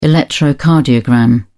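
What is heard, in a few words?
"electrocardiogram", British English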